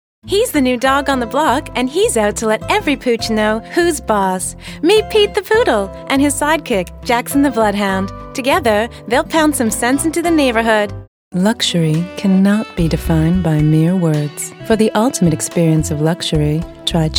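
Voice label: American English, TV commercial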